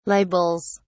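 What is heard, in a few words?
"libels", British English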